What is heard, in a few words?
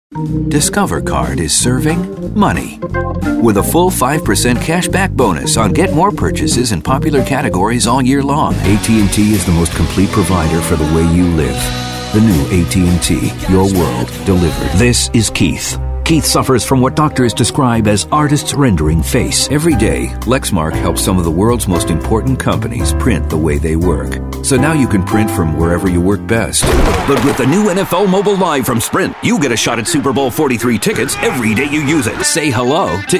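commercial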